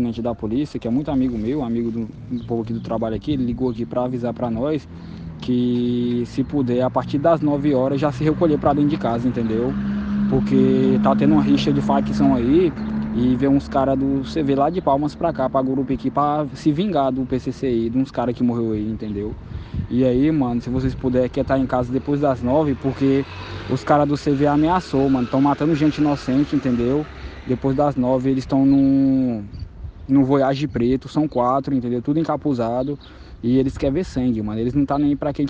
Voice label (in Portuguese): WhatsApp Audio, áudio que relata suposta guerra de facções; guerra de facções em gurupi e tranquiliza população